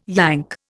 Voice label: "Yank", Indian

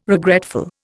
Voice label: "regretful", Indian

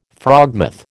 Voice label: "frogmouth", American

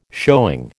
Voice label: "showing", American

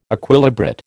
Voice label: "Equilibrate", American

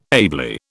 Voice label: "ably", British

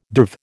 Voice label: "derv", American

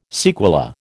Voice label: "sequela", American

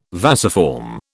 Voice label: "vasiform", British

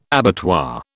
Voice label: "abattoir", British

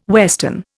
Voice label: "western", Indian

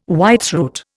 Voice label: "whitethroat", Indian

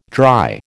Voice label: "dry", American

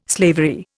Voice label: "slavery", Indian

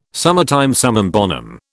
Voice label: "summertime summum bonum", British

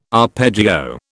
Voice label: "arpeggio", British

